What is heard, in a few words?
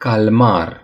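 "squid", Romanian; gcompris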